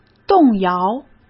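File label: "动摇", Mandarin; chinese-flashcards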